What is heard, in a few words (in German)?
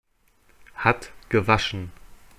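"hat gewaschen", German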